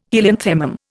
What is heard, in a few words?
"helianthemum", Indian